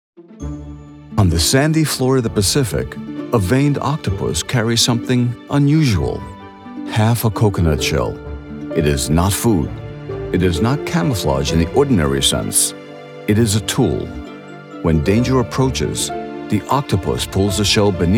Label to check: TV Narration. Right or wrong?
Right